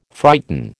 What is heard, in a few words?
"frighten", American